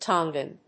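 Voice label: "Tongan", English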